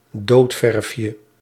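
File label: "doodverfje", Dutch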